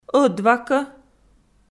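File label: "ӧдвакӧ", Komi, female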